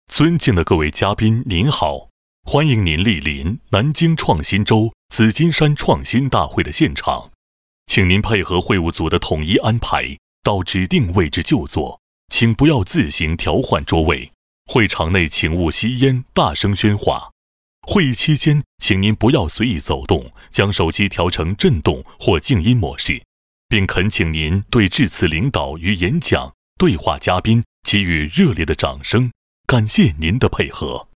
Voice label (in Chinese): 大会旁白主持